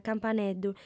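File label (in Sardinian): "campaneddu", female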